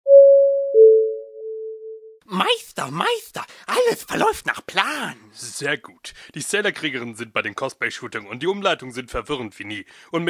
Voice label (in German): durchsage